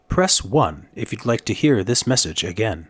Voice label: Press 1, IVR menu option with clear, professional call-to-action; IVR Thumbtack